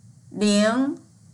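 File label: "ling2", Mandarin Chinese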